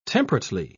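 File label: "temperately", British English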